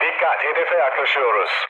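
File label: Turkish near waypoint, mood low, speech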